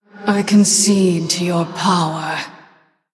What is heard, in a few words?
Female Human Concede; en